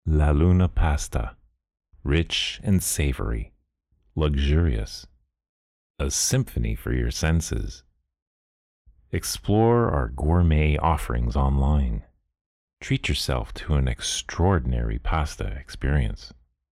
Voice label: Luxury Restaurant, Baritone Voice